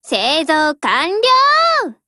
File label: BUILD OVER JP; GF Alarm; M1919A4